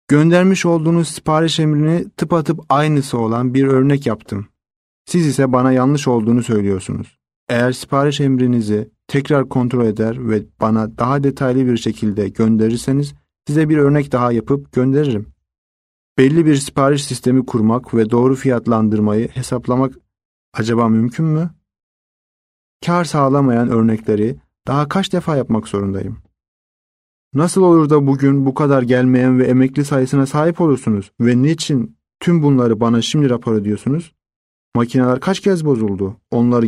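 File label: Turkish, male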